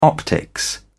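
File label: "optics", British English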